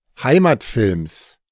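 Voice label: "Heimatfilms", German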